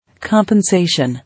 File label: "Compensation", English